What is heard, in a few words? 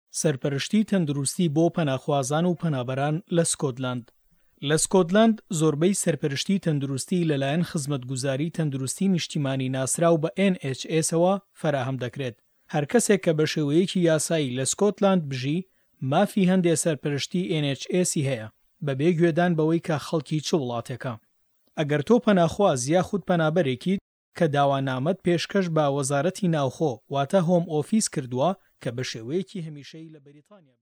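Kurdish, male; voice over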